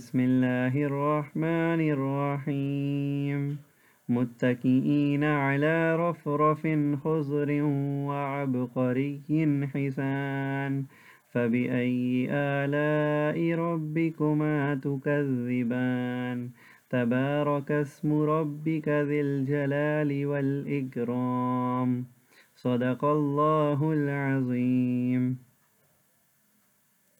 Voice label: My Voice, recitation